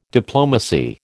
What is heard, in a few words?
"diplomacy", American